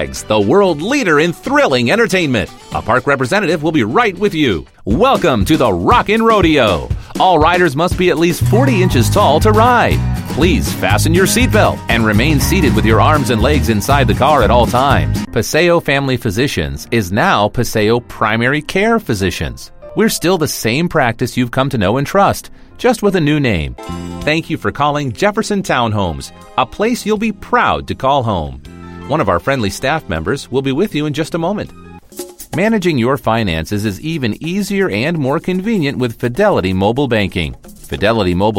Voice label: Narration, Male voices